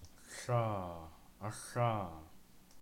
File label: Voiceless velar lateral fricative